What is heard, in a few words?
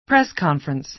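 "press conference", American English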